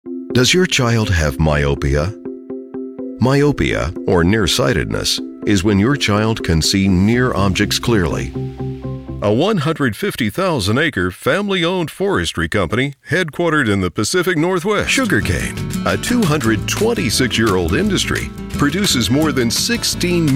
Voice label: Narration

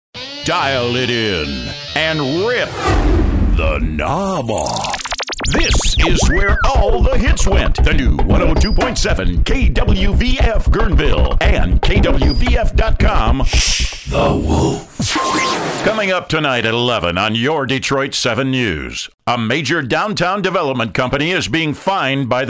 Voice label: Imaging

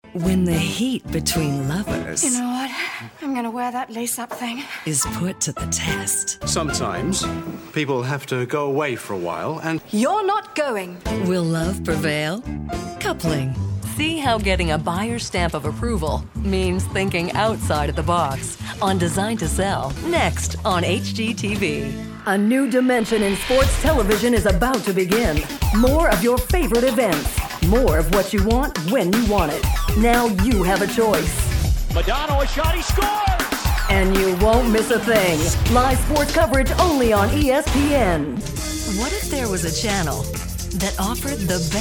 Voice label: Imaging

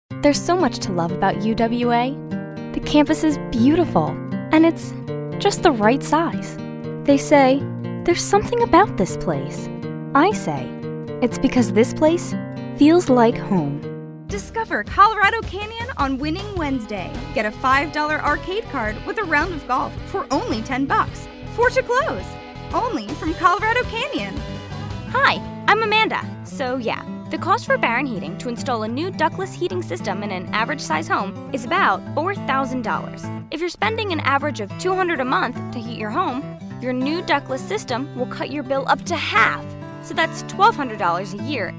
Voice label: Kids

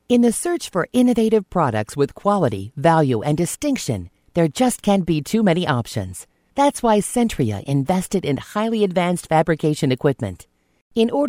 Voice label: E Learning

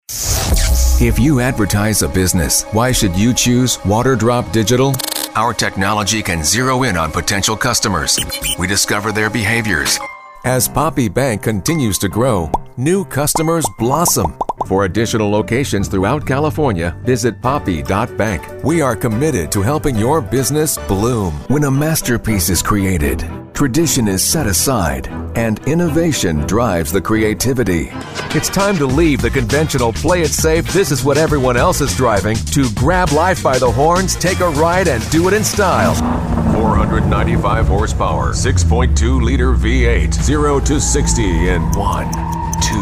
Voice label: Commercial

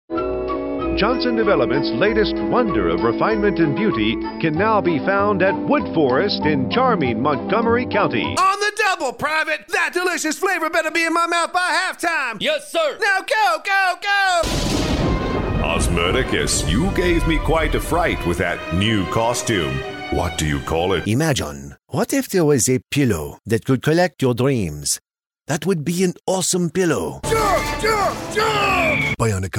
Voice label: Character

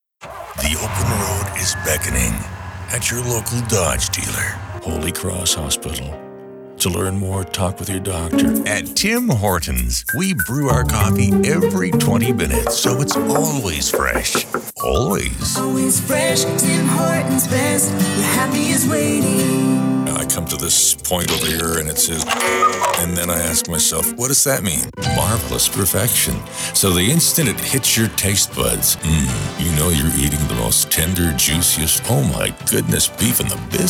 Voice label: Commercial

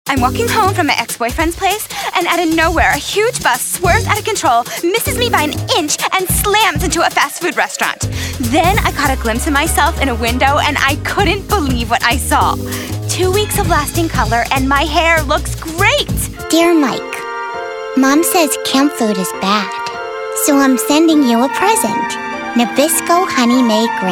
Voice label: Character Voice

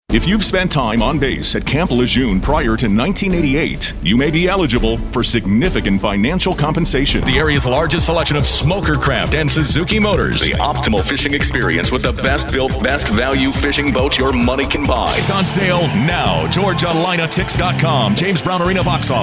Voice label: Commercials

